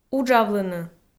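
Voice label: "уджавлыны", Komi, female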